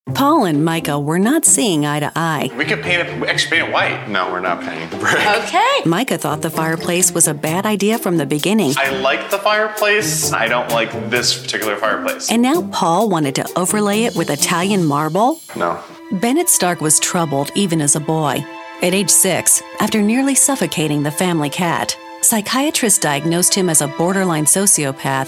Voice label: TV NARRATION DOCUMENTARIES

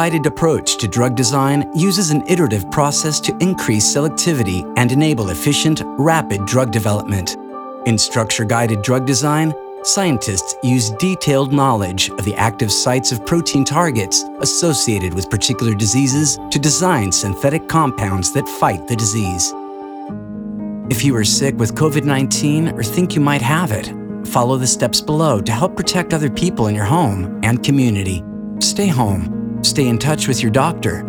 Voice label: Medical English

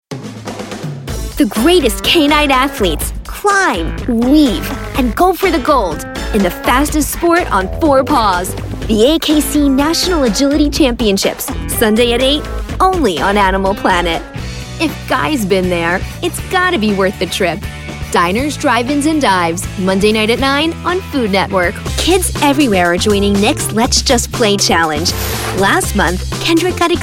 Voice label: Promo

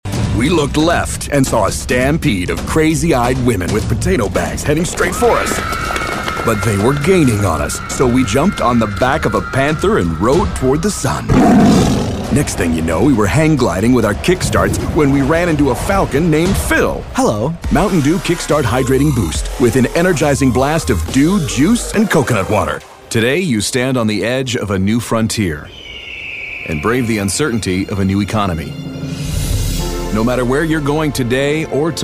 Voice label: Commercial

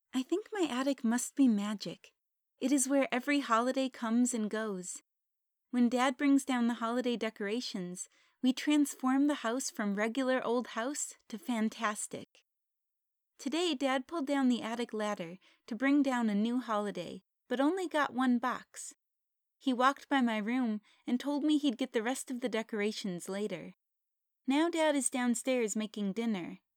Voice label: narration

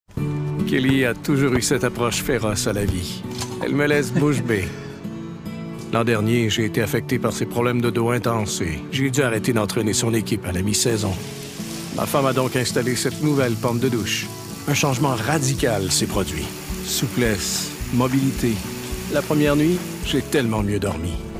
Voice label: Comercials

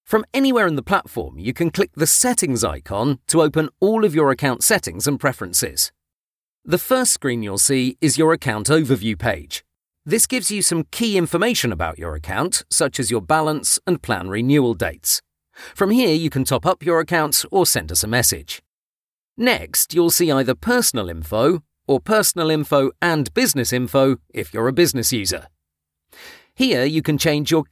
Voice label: Screencast

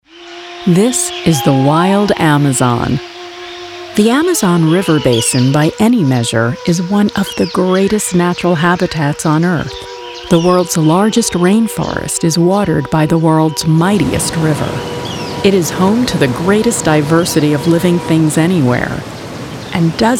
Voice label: Narration

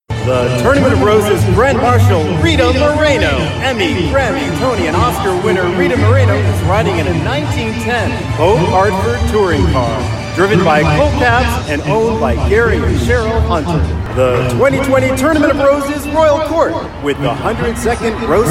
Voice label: Announcer

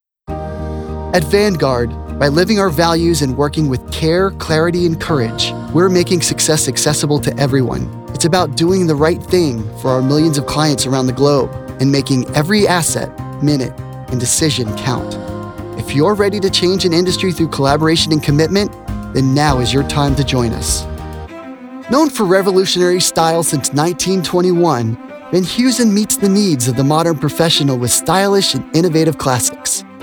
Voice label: Corporate

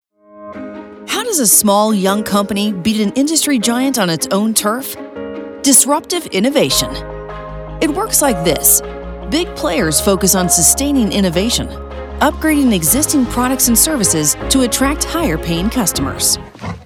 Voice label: corporate

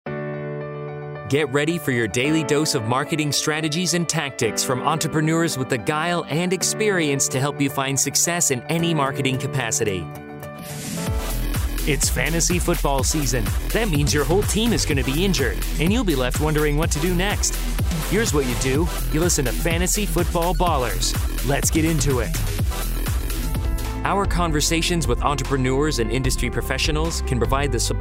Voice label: Podcasts

